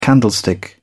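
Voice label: "candlestick", British English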